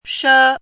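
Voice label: "she", pinyin, first tone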